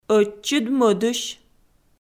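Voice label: "ӧтчыд-мӧдысь", Komi, female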